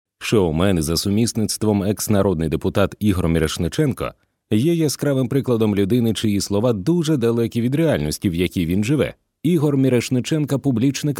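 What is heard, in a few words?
ukrainian corporate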